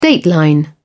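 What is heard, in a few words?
"dateline", English, British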